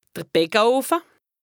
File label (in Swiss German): "d'r Beckeoffe", Alsace, HAUT RHIN; baeckeoffe; lexique indispensable